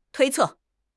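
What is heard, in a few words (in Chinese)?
"推测", Chinese; HSK6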